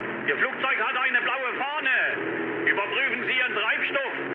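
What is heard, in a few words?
German tank damaged mood med, speech; War Thunder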